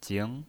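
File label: "jing", second tone, pinyin voice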